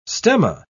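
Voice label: "stemma", British English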